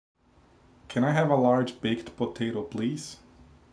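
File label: "Potato", English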